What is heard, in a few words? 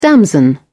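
"damson", British English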